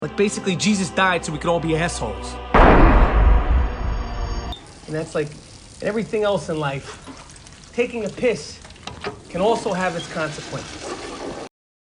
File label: gritty dialogue